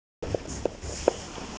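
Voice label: "er3duo5", Mandarin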